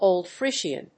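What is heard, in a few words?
"Old Frisian", English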